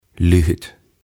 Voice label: "Lyhyt", "Short"

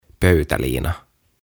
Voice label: "Pöytäliina", "Tablecloth"; OTAVA KONSERNI

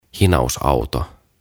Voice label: "Hinausauto", "Breakdown Lorry"; OTAVA KONSERNI

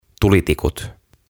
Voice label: "Tulitikut" Matches

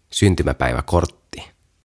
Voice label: "Syntymäpäiväkortti" "Birthday Card"

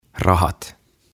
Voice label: "Rahat", "Money"

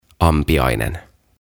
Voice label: "Ampiainen" Wasp

